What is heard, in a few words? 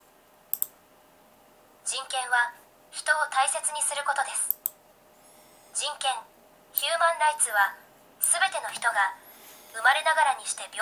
elearning; japan; business and human rights